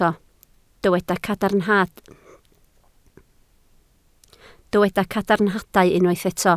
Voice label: "cadarnhadau", Welsh

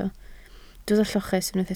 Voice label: "lloches", Welsh

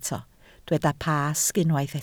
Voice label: "Pasg", Welsh

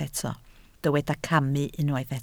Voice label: "camu", Welsh

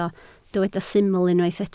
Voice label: "syml", Welsh

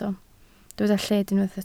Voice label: "lled", Welsh

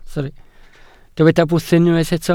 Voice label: "bwthyn", Welsh

